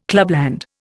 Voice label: "clubland", Indian

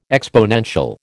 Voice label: "exponential", American

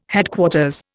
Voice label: "Headquarters", Indian

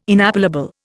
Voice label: "inappellable", Indian

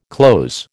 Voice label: "cloze", American

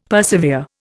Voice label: "persevere", Indian; Dictionary

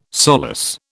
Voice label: "solace", British

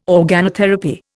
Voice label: "organotherapy", Indian

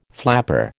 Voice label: "flapper", American

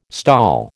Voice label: "STOL", American

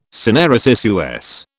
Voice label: "syneresis", US

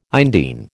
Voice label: "indene", American

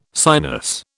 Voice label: "sinus", British

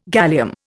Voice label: "gallium", Indian

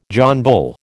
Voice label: "John Bull", American

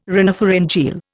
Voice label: "rhinopharyngeal", Indian

